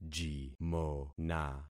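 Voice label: "jimona", concatenated syllables; Affixing experiment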